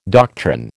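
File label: "doctrine", American English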